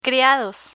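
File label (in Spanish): "criados", Spanish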